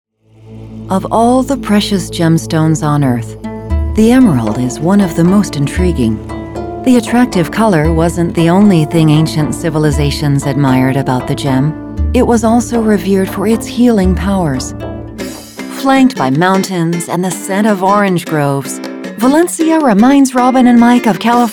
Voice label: Narration; AntlandProduction